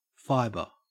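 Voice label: "fibre", Australian English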